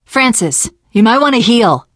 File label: player suggest health specific, survivor voice teen girl